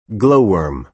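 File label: "glow-worm", American English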